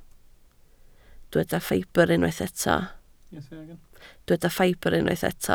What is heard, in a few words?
"ffibr", Welsh